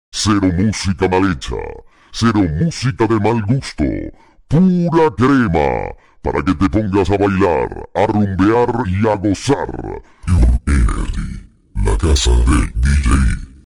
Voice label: spot; musica mal mezclada pure energy